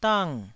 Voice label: "dang4", Taiwanese